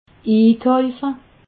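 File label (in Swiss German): "ikaufe", Alsatian, Haut-Rhin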